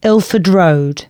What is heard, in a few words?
announcements, female